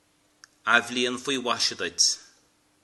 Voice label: new year, Irish

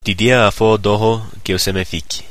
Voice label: how to say, efik

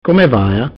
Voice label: how are you, Venetian

